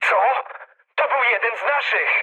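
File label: Polish player killed ally mood medium; War Thunder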